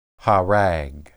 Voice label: "harag", Hebrew